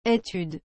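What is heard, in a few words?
"etude", French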